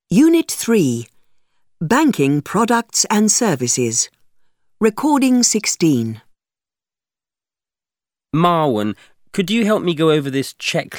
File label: English platform, english e-learning platform; VUB